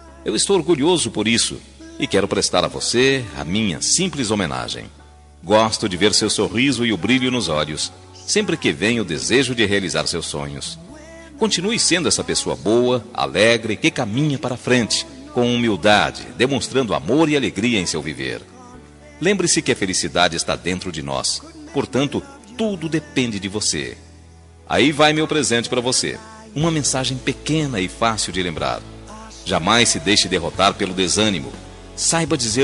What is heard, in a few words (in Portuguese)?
amiga masc, voz masculina; dia das maes para amiga